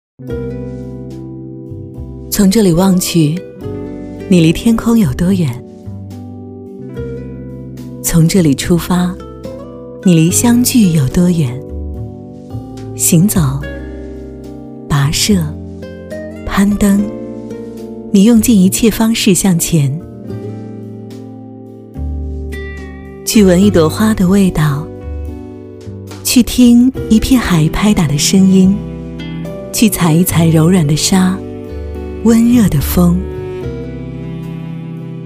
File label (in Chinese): 女 叙述版; 天津航空